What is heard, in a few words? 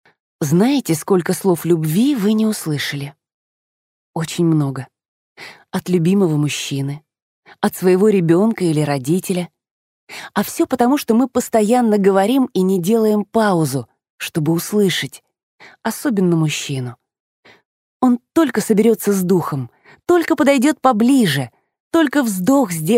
audiobooks; Примеры озвучки аудиокниг